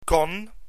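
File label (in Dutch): "kon", Dutch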